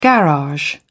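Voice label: "garage", English, British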